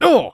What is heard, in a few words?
Civilian pain sharp